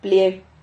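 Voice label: "plie", Spanish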